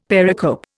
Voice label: "pericope", Indian; Dictionary